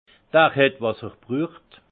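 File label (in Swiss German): "brucht", alsace Haut-Rhin; vin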